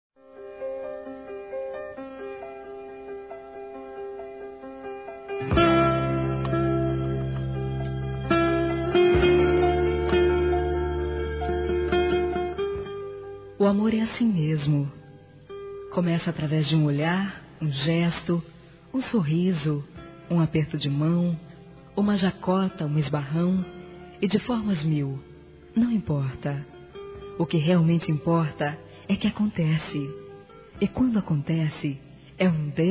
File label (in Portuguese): NOIVADO F; Casamentos; fem; Telemensagens